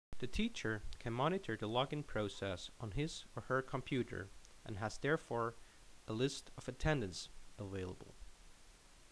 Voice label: Narration